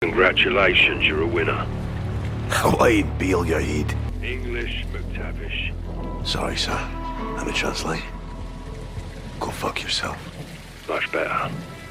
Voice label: ghost english